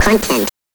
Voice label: "CONTENT", VOX; NES DPCM; MIDINES